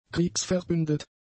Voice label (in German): "kriegsverbündet", German; adjectives